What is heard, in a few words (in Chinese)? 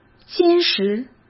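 "坚实", Mandarin Chinese; hsk; chinese flashcards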